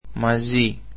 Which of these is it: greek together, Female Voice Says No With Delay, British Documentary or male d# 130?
greek together